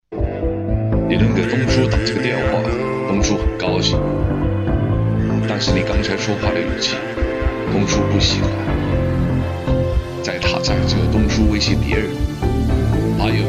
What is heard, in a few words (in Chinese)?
电影 配音 霸气, 男, 普通话, 青年